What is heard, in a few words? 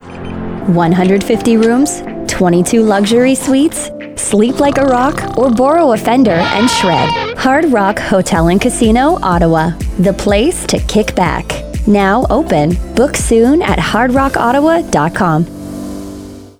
Radio Ads; RideauCarleton; Hard Rock Ottawa; radio commercials